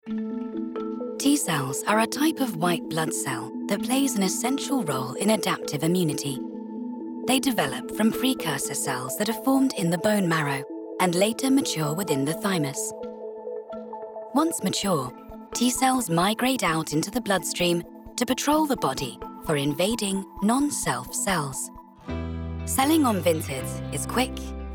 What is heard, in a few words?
elearning